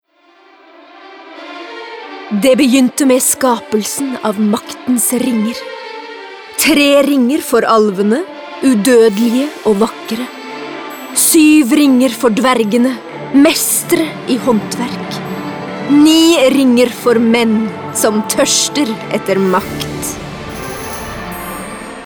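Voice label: Norwegian commercial movie trailer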